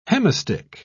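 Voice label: "hemistich", British English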